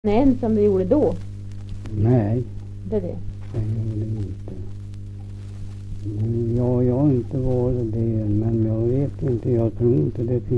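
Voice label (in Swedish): Ramundeboda Närke, dialekter; Folkminnen; Svenska sagor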